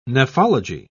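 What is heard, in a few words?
"nephology", British English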